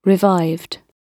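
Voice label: "revived", British English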